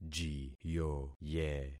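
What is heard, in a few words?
"jiyoye", concatenated syllables; Affixing experiment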